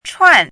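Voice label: "chuàn", Mandarin Chinese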